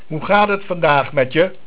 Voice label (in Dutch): "Vandaag", Dutch